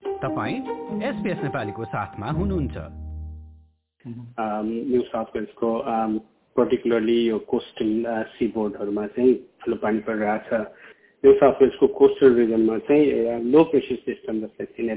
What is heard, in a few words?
nepali; SBS Nepali; 'न्यून चापीय प्रणाली न्यु साउथ वेल्समा बाढी आउने गरी पानी पर्नुको कारण': मौसमविद्